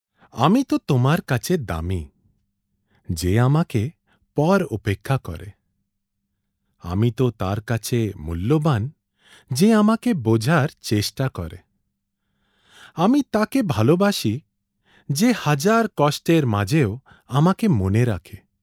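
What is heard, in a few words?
VO STORY BENGALI, bengali voice over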